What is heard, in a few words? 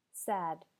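"sad", English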